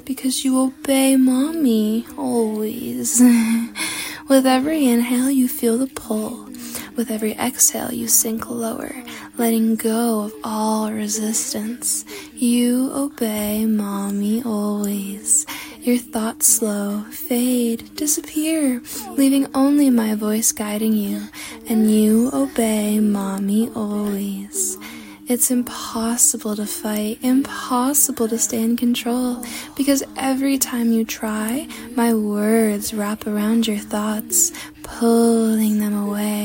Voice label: Obedience Training, Trance, Deeper, Moans, Overwhelming; Erotic audio; F4A; Cult; Brainwashing; Goon; Loop